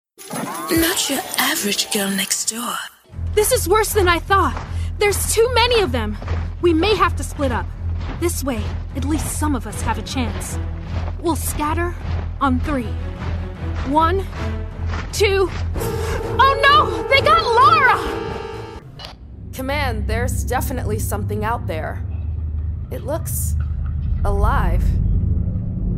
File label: english american video games